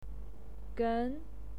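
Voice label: "gen", Mandarin Chinese, second tone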